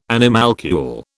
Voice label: "animalcule", British